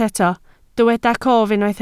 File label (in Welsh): "cof", Welsh